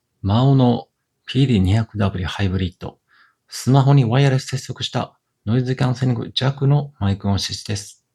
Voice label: maono pd200w hybrid Smartphone microphone sound quality